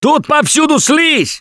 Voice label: warn spitter incoming, left4dead2 russian, Survivor Voice; Gambler

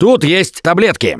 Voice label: Spot Pills, left4dead2 russian, Survivor Voice; Gambler

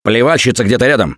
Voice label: heard spitter, left4dead2 russian, Survivor Voice; Gambler

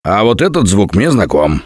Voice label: heard witch, left4dead2 russian, Survivor Voice

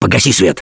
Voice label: Kill That Light, left4dead2 russian, Survivor Voice; Gambler

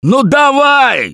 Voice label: defibrillator, left4dead2 russian, Survivor Voice

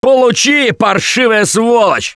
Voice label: Taunt, left4dead2 russian, Survivor Voice; Gambler

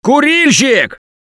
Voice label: warn smoker, left4dead2 russian, Survivor Voice; Gambler

